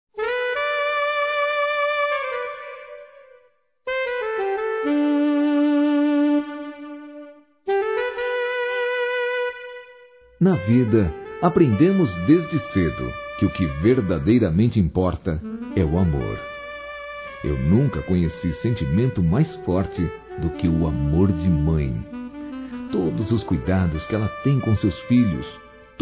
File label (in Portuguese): Madrasta, Masc; Dia das Mães; Telemensagens 2017